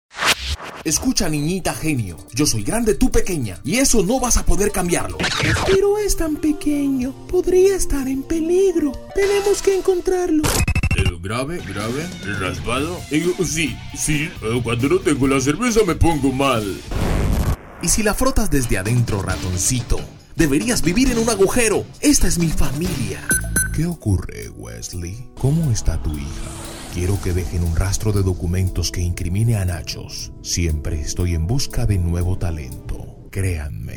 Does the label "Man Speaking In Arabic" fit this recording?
No